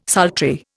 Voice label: "sultry", Indian